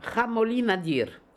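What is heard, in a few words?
"Hamolinadir", pronunciations; Fourth Way; Beelzebub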